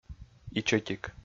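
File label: "ичӧтик", Komi, male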